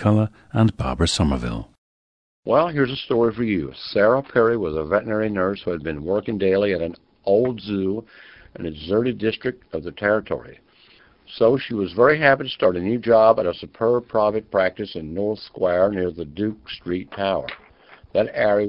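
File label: virginia, International Dialects of English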